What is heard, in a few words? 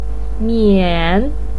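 "mian", Mandarin pinyin, third tone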